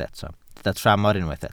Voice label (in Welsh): "tramor", Welsh